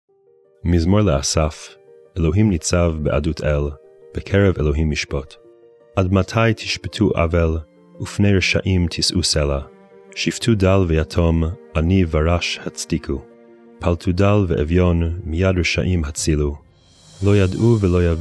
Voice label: psalm reading hebrew; Meir Soloveichik